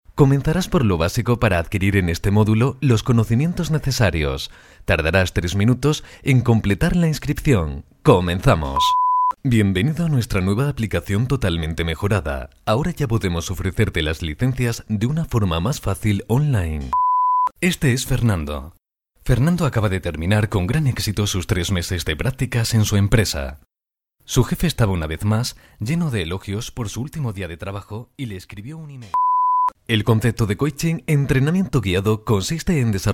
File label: e-learning